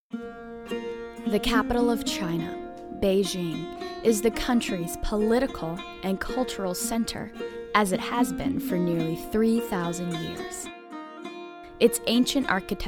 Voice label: Narrative